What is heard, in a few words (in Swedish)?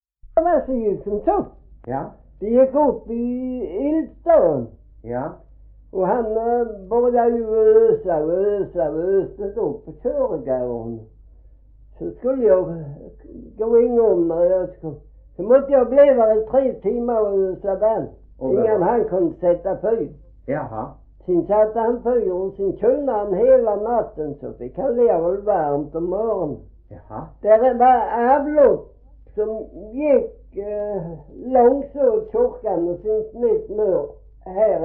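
Gråmanstorp Skåne, dialekter svenska sagor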